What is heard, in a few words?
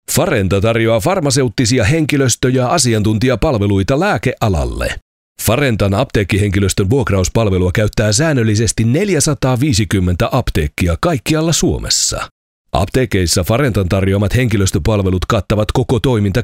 Narration Finnish